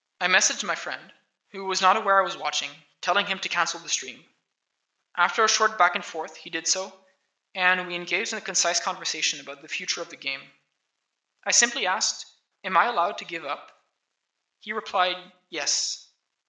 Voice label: voice, narration